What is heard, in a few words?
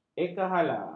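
"ekahala", Malay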